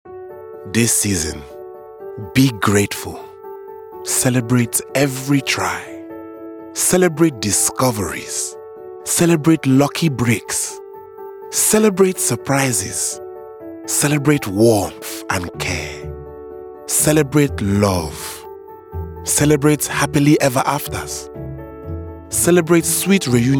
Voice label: Accent

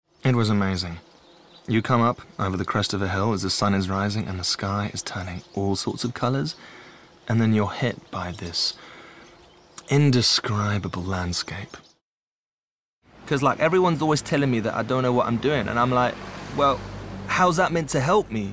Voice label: Character

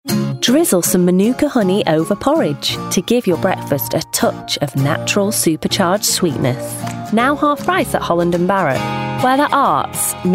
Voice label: Commercial

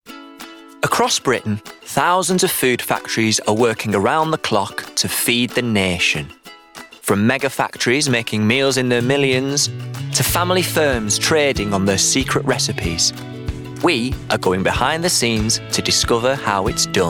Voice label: Documentary

